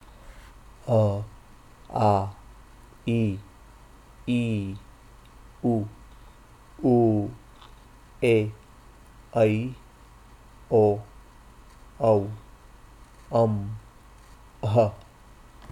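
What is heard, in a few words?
Hindi main vowels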